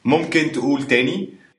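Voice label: say again, Egyptian Arabic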